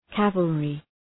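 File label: "cavalry", English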